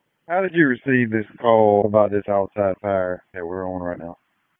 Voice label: FIRE OPS, p25; FIRE OPS 3; wake